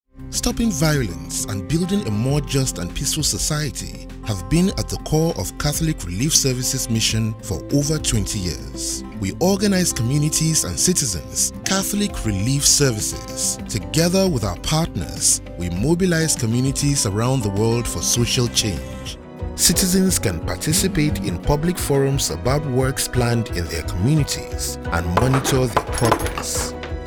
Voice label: Male African & International accent voiceover E-Learning & Educational Content